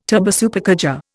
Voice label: "turbosupercharger", Indian